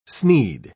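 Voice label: "snead", British English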